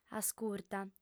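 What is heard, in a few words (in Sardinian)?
"ascurta", female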